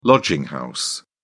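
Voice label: "lodging house", British English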